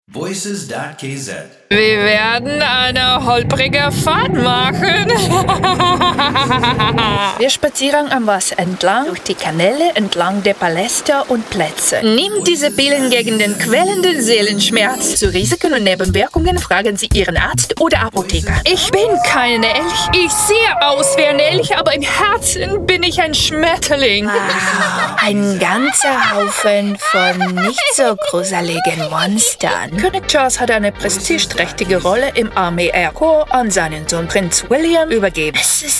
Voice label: de